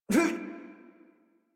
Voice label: Adult Operator Jump, en; Warframe; New War